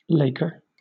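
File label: "laker", British English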